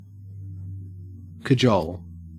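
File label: "cajole", Australian English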